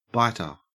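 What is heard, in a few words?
"biter", Australian English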